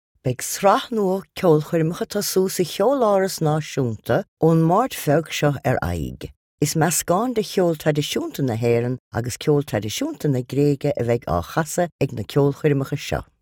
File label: Gaelic